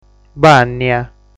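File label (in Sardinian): "Bànnia", Sardinian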